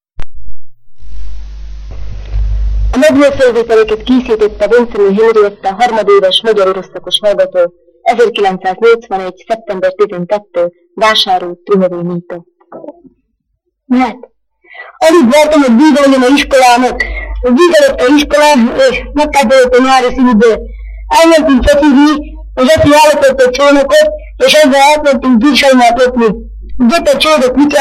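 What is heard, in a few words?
dialect collection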